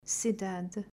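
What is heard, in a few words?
"Cidade", Portuguese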